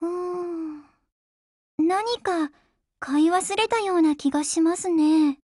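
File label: OPERATION OVER Japanese, Voice; GF Alarm; Ak5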